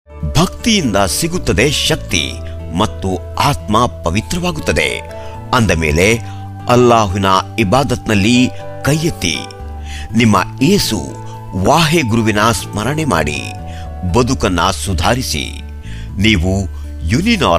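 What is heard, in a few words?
Kannada male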